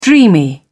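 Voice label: "dreamy", British English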